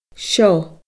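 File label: "seo", Scottish Gaelic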